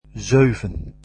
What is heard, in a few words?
seven, dutch